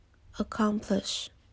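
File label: "accomplish", TWN, female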